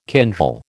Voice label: "kindle", American